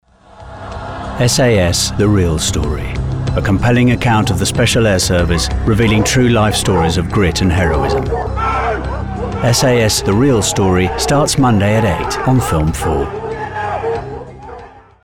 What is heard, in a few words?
promo; SAS